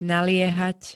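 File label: "naliehať", Slovak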